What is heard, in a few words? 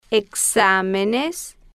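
"ex-a-me-nes", Spanish, female, slow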